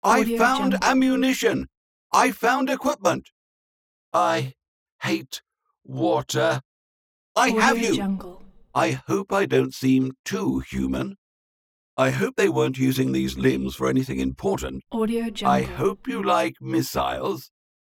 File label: male presenting android effect